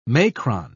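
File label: "macron", British English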